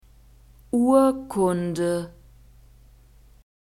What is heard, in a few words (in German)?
"urkunde", German